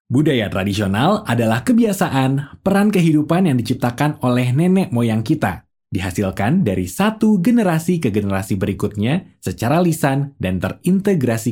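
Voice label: Indonesian, male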